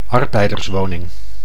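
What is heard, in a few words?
"arbeiderswoning", Dutch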